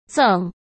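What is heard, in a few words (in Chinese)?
"赠", Mandarin Chinese; hsk; chinese-flashcards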